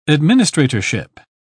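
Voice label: "administratorship", British English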